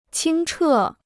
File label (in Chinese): "清澈", Chinese